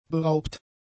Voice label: "beraubt", German; adjectives

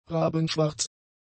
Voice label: "rabenschwarz", German; adjectives

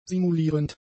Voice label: "simulierend", German; adjectives

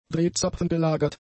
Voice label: "drehzapfengelagert", German; adjectives

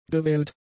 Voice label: "gewählt", german; adjectives

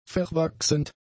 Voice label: "verwachsend", German; adjectives

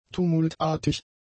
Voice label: "tumultartig", German; adjectives

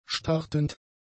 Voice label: "startend", German; adjectives

